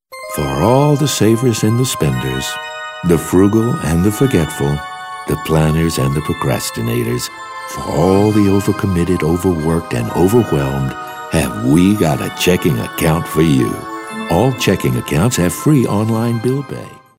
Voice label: smooth

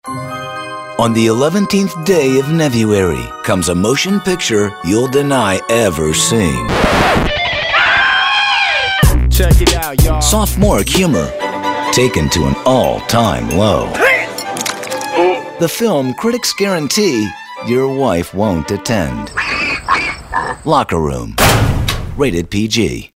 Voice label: movie trailers

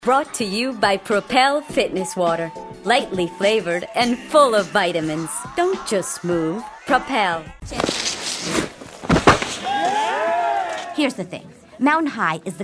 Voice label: English

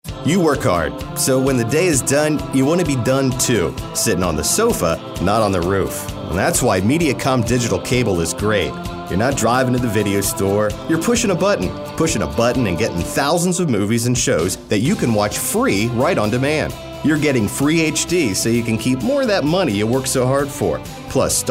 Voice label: friendly genuine

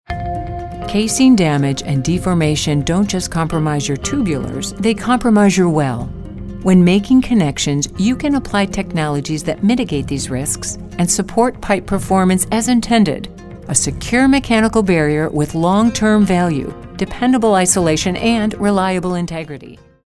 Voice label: informative

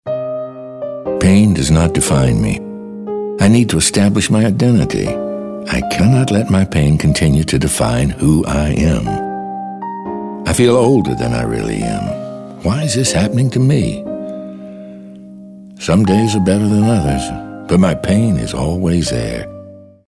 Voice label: mature

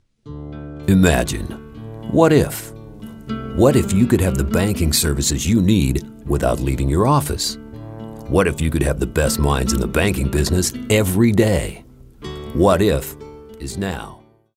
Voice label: thoughtful

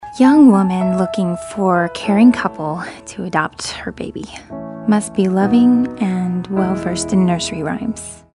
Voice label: real people youthful

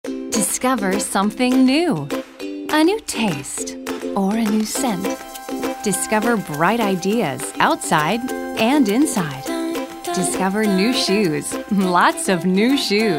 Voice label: perky

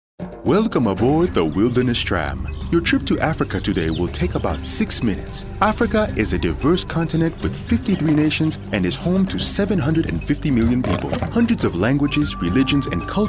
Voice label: african

